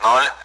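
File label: Speech, Russian, AWACS; Digits; DCS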